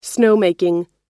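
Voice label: "snowmaking", English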